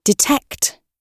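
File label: "detect", British English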